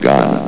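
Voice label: "gun", English; valve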